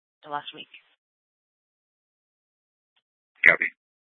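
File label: police department dispatch; Mesa PD; 3 Red Mnt Dispatch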